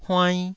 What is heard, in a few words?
"huainn2", taiwanese syllable